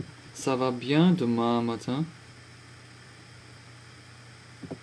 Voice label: french pronunciation